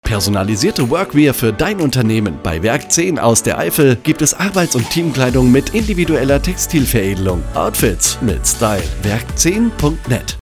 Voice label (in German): Funkspot; Werk10 Workwear Teamwear Druck Stick